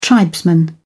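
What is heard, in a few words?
"tribesman", British English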